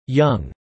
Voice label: "young", English

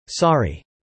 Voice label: "sorry", English

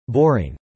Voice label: "boring", English

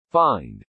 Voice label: "find", English

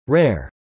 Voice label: "rare", pronounce

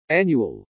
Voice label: "annual", English

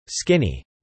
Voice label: "skinny", English

